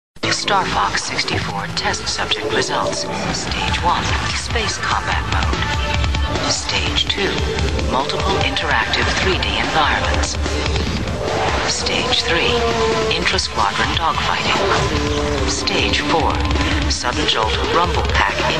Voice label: commercial; star fox 64; n64